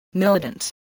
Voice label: "militant", voice, spelling